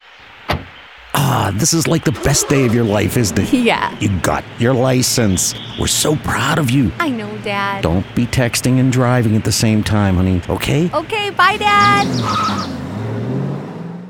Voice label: english american promos